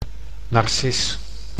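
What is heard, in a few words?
"narcis", Dutch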